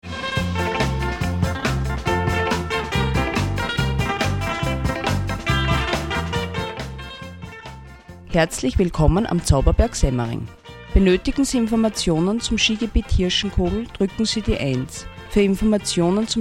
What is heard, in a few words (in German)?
Ansage Happy Sound